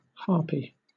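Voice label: "harpy", British English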